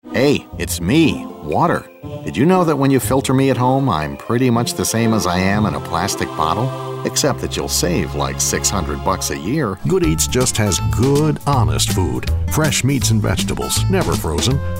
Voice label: commercial